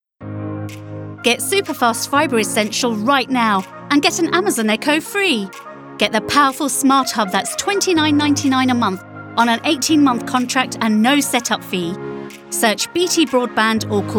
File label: Commercial Ad; BT